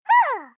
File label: girl; paper crane; battle